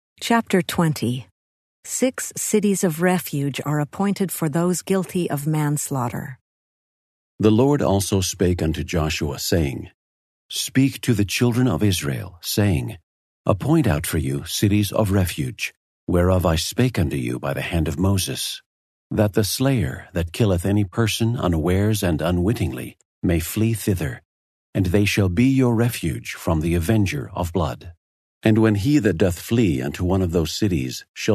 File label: male voice, eng; joshua 20; the old testament